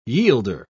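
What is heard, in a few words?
"yielder", British English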